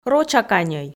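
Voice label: "рочаканьӧй" Komi, female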